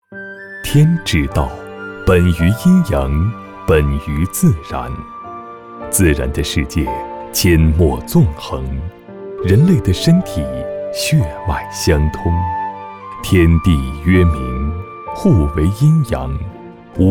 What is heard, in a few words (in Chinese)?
男 舌尖纪录